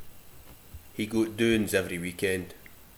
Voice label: doins, slang